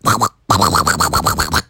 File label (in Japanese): 何かを訴える夢の国のアヒル